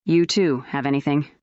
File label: fem